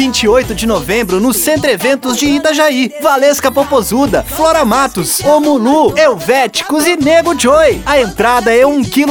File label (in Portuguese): Jovem, Skol Music Festival